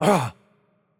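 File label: Turkish hurt light voice; bloom minigame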